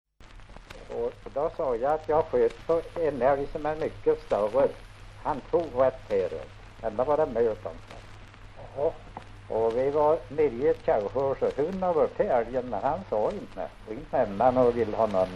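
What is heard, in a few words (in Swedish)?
Sunnemo Värmland, svenska sagor dialekter; Folkminnen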